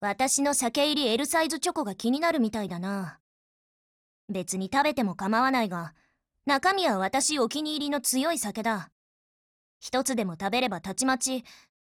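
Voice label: VALENTINE, Japanese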